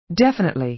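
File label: "definitely", American English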